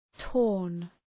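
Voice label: "torn", English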